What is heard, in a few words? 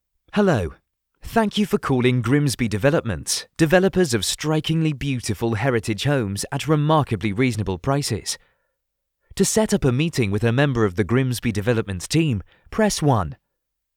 IVR UK